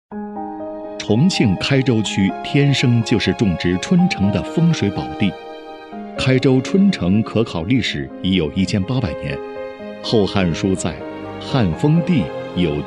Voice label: 男 宣传片; 重庆开州区-开县春橙; 柔和 沉稳大气

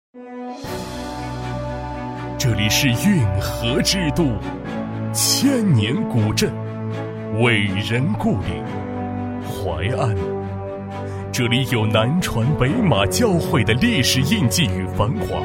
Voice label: 男 专题汇报 工程汇报 大气昂扬; 激情大气; 舒缓抒情; 男D7-专题汇报【工程汇报】-大气昂扬

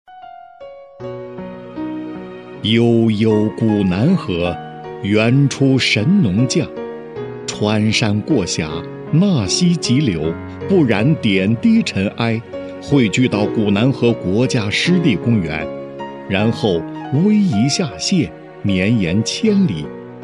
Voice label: 男 景区宣传片; 古南河生态好水润千里; 柔和

